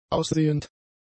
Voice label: "aussehend", German; adjectives